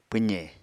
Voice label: "pwze", Apatani